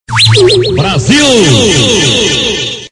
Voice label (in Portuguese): Brasil Sil Sil, Vinhetas